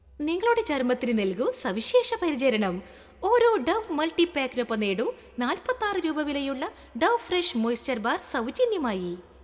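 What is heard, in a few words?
TVC malayalam; dove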